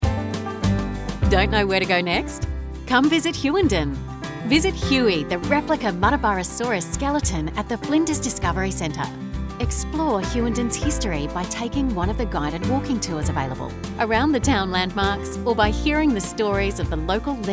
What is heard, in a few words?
Tourist Radio; HUGHENDEN